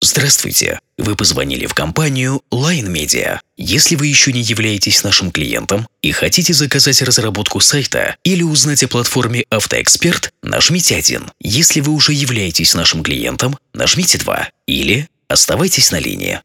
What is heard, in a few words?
M IVR